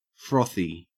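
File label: "frothy", Australian English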